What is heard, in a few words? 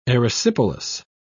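"erysipelas", British English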